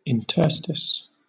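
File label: "interstice", British English; pronunciations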